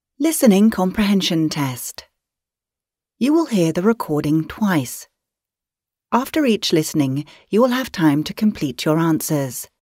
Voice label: Englisch Test Intro